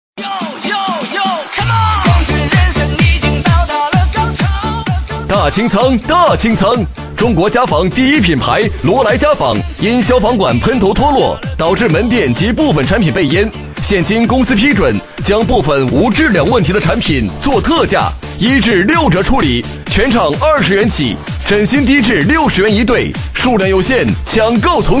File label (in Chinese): 男 促销 家纺; 罗莱家纺